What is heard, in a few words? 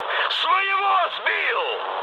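player killed ally, mood high, Russian; War Thunder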